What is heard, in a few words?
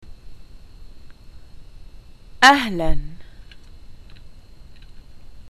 greetings hi, arabic levantine